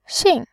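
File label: "xin", Mandarin Chinese, tone 4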